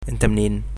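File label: "where from", Arabic, Egyptian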